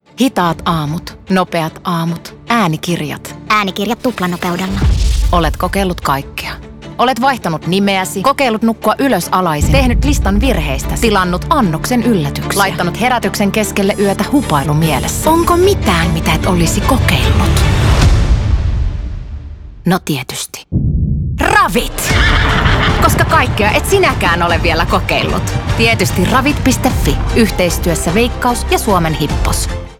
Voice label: radio; veikkaus; ravit; hitaat aamut